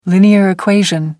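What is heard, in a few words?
"linear equation", British English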